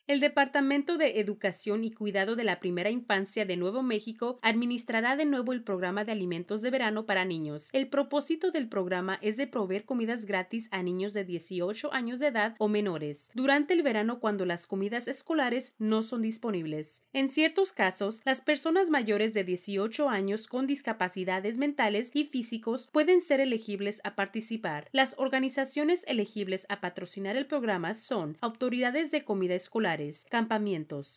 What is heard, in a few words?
Commercial Spanish; 2022 State Agency Media Release